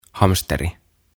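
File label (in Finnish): "Hamsteri", Finnish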